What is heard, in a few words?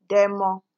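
"demo", Nigerian Pidgin, female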